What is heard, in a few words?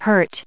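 "hurt", English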